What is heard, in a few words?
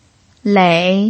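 "lei", Mandarin Chinese pinyin, tone 3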